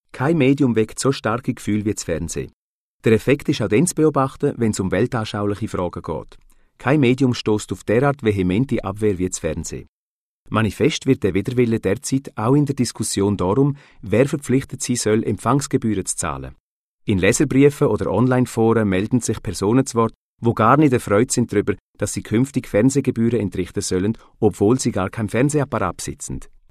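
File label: Swiss German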